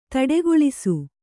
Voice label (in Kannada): "ತಡೆಗೊಳಿಸು", Kannada